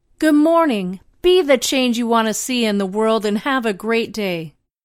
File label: Female; be the change